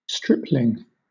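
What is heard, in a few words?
"stripling", British English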